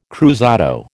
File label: "cruzado", American